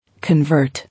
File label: "Convert", English